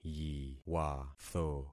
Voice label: "yiwatho", concat syllables; Affixing experiment